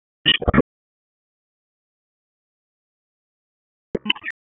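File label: Police North District Tac, radio; Raleigh; Tac 1; wake; p25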